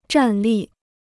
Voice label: "站立", Chinese

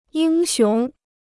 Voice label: "英雄", Chinese

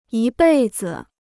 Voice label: "一辈子", Chinese

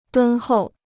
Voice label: "敦厚", Chinese

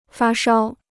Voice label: "发烧", Chinese

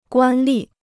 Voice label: "官吏", Chinese

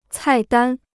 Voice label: "菜单", Chinese

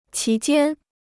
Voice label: "其间", Chinese

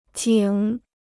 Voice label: "井", Chinese

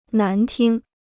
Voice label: "难听", Chinese

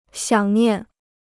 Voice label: "想念", Chinese

